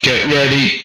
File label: "Get Ready", retro arcade speech